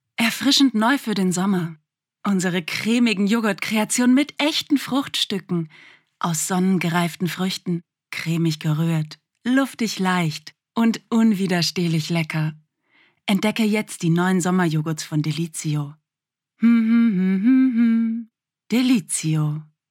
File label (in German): Werbung Joghurt